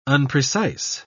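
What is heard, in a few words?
"unprecise", British English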